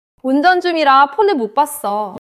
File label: neutral, monologue